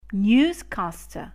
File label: newscaster; MEZZOBUSTO; NEWS PRESENTER; NEWS READER; TALKING HEAD; Conduttore di telegiornale